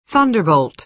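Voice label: "thunderbolt", American English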